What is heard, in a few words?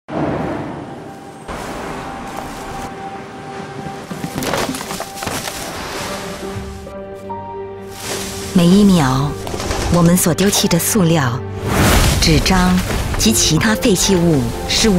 Mandarin, China Female; NEA Reducing Plastics; Chinese ZHCN